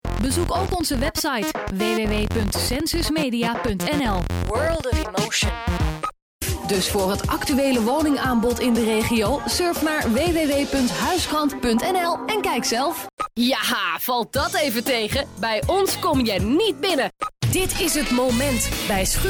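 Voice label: Dutch female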